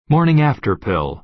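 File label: "morning-after pill", American English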